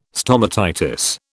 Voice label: "stomatitis", British